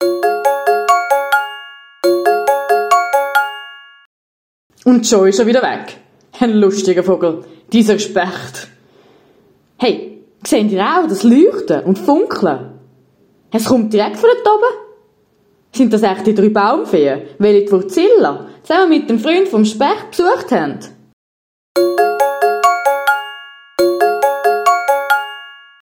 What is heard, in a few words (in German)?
Zwischentext Specht mit Jingle